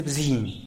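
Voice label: "bzin" rat, Zapotec; animals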